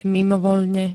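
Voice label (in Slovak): "mimovoľne", Slovak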